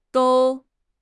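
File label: "dou1", Mandarin Chinese; HSK1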